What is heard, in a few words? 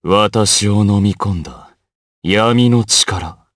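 voice, Japanese; DarkKasel; kingsraid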